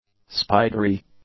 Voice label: "spidery", english; adjectives